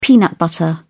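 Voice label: "peanut butter", British English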